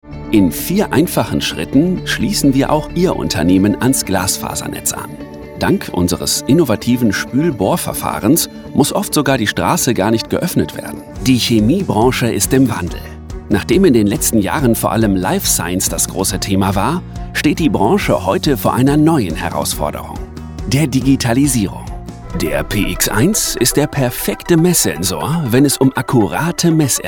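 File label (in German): Erklärvideo Industrie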